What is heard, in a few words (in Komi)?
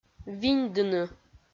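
"виньдыны", Komi, female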